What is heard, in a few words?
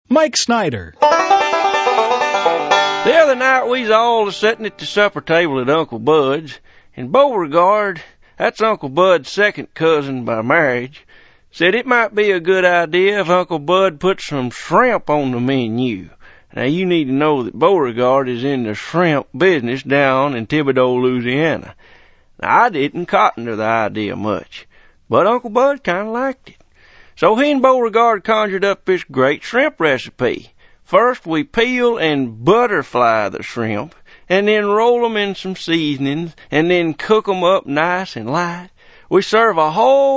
male; Spotland Productions